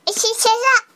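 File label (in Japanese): "issyo issyo issyo", Japanese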